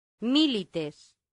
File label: "milites", latin; santillana